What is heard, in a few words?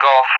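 "Golf", English; Alphabet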